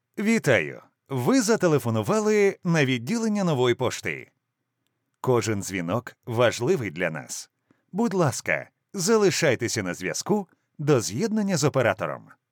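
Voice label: Ukrainian, male, voice over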